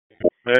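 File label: FIRE Operations, p25; FIRE OPS 3; wake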